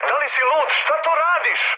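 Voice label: Serbian player killed ally mood med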